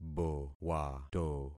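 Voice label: "bowato", concatenated syllables; Affixing experiment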